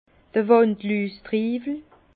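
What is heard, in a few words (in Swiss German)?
"wandlustriiwel", Alsace Bas Rhin; vin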